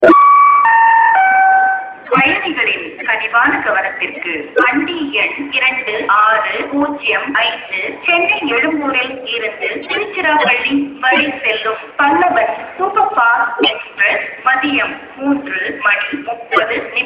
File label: Railway Announcement